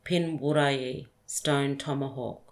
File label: "pinwurrayi" stone tomahawk